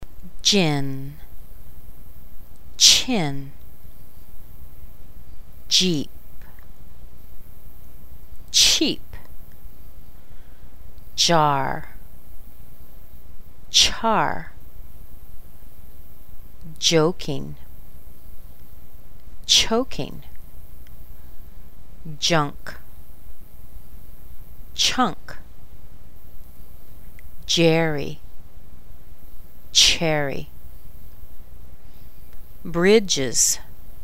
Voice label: CH J words slow, American accent